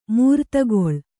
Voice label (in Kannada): "ಮೂರ್ತಿಗೊಳ್", Kannada